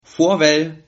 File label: goodbye, Sylt Frisian